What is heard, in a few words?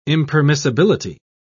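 "impermissibility", British English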